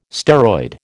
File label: "steroid", American